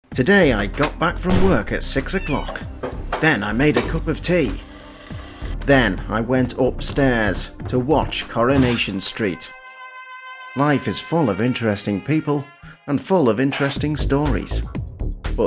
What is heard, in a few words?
commercial